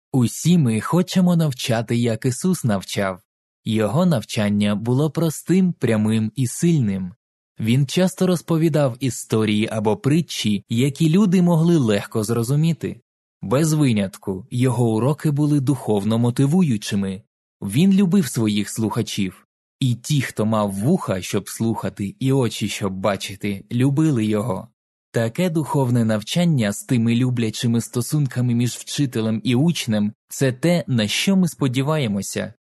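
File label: Ukrainian, male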